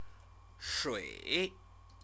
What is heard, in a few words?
"shui", Chinese